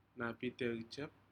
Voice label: crab species napitcejip, Aneityum